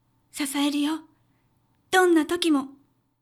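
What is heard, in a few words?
female; 支えるよ、どんなときも。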